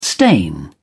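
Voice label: "stain", British English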